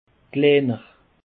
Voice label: "gleiner", Alsace